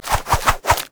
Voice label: zombie emote taunt; LoL; brand zombie